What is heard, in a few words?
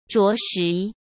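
"着实", Mandarin Chinese; chinese-flashcards; hsk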